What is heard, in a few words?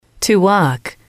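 "to walk", English, female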